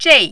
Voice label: "zhei4", mandarin